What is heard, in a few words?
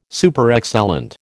"Superexcellent", American